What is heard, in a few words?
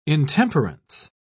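"intemperance", British English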